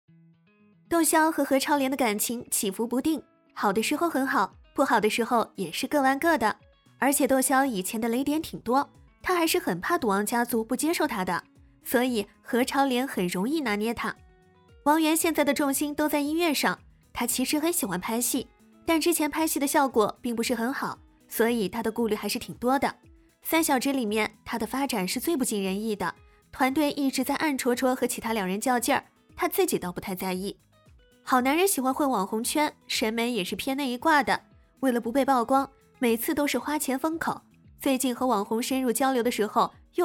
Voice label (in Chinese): 自然活泼娱乐